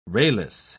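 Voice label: "rayless", British English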